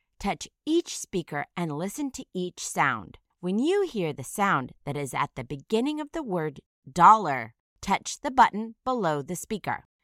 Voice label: "dollar", initial phoneme isolation